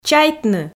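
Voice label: "чайтны", Komi, female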